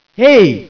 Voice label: "greetings", scientist